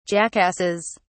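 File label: "jackasses", British English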